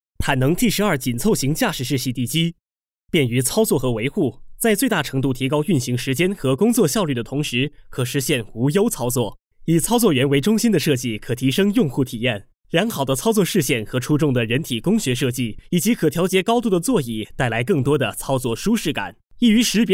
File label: Chinese simplified